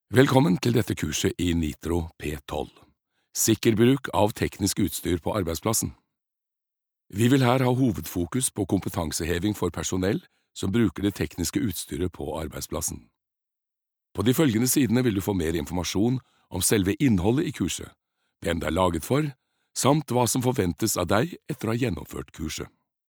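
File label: Norwegian elearning, mann; norsk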